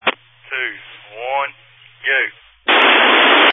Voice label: Family Radio Service (FRS)